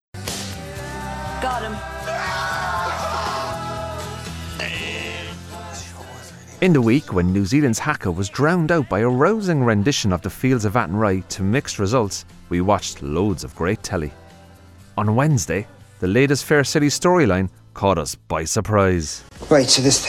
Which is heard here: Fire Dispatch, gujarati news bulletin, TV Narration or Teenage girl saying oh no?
TV Narration